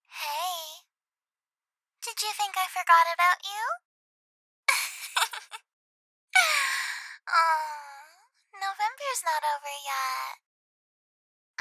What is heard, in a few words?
voicemail